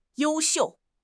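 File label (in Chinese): "you1 xiu4", Chinese; HSK; HSK4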